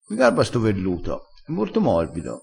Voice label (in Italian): "garba", crespinese